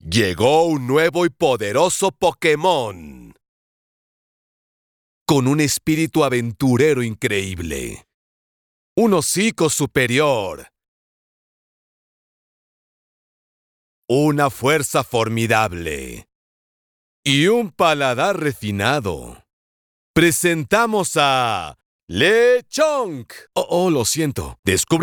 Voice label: spanish latin american video games